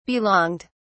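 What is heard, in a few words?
"belonged", British English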